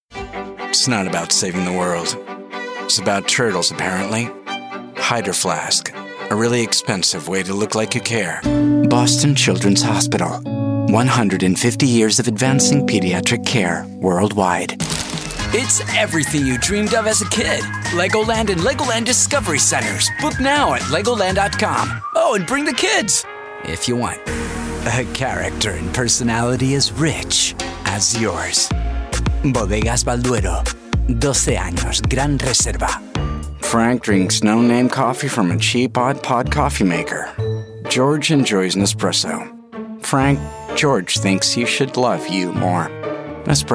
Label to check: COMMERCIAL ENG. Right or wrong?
Right